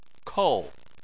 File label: "kou4", Chinese